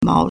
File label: "mao2", Chinese